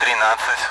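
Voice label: Speech Sound Russian Common AWACS Numbers; DCS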